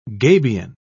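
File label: "gabion", British English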